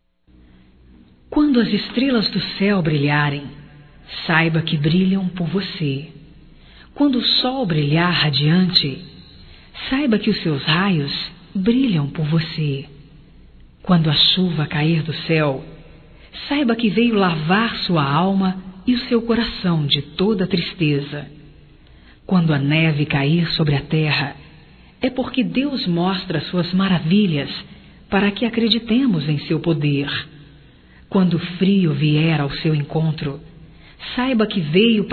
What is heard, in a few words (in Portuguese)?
Mensagem Evangelica Feminino, Telemensagens